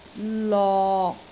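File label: "lok6", Cantonese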